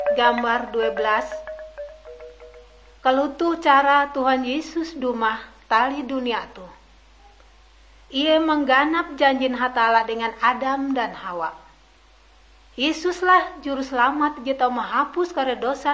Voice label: kabar bahalap good news ngaju, drama